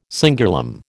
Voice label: "cingulum", American

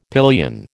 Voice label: "pillion", American

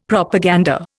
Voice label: "Propaganda", Indian; Dictionary

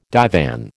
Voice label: "divan", American

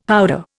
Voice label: "powder", Indian; Dictionary

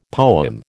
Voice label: "poem", American

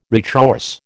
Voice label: "retrorse", American

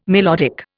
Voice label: "melodic", Indian

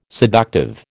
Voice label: "seductive", American

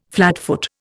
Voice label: "flatfoot", Indian

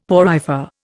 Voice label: "porifer", Indian; Dictionary

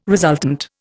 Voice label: "resultant", Indian

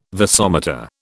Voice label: "vasomotor", British

